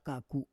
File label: "kaku", Roon